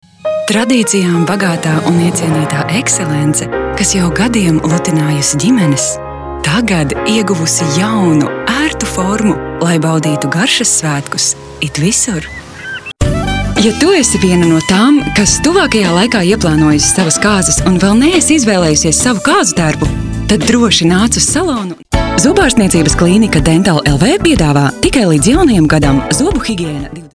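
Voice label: lv, Latvian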